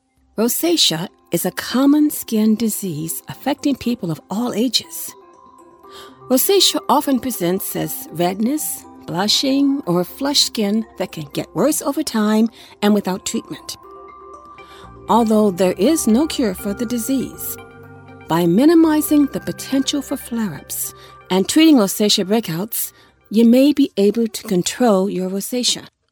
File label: Explainer; Rosecea